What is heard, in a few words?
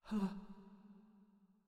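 "My Light", voice; sora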